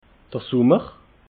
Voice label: "summer", alsace

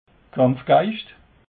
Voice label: "kampfgeischt", alsace

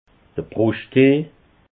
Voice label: "bruschttee", alsace

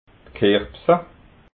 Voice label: "kirbsa", alsace

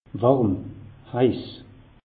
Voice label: "warm", alsace; meteo

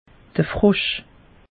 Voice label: "frosch", alsace; meteo

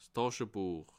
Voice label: "taschebuech", alsace

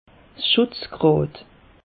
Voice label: "schutz grad", alsace; meteo

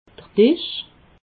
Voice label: "disch", alsace

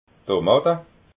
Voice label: "tomata", alsace

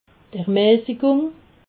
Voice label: "ermassigung", alsace

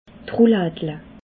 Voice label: "roulade", alsace

